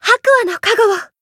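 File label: Voice Japanese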